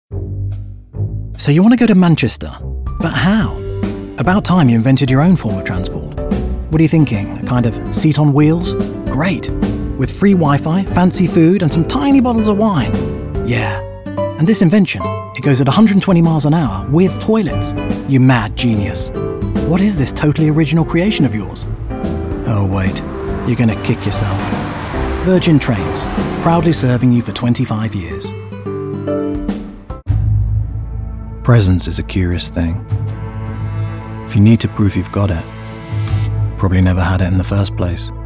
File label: Commercial